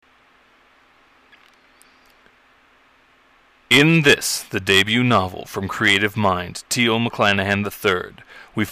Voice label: Audio Ad